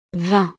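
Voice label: "vingt", French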